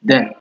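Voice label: "dem", Nigerian Pidgin, male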